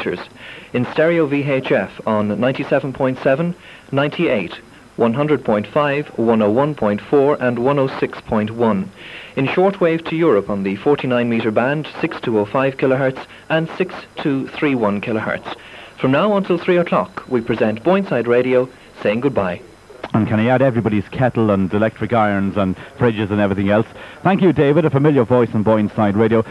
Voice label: final announcement with all frequencies; boyneside 1305am; A nice on the hour announcement at 1pm, giving all the frequencies on which the final show is broadcasting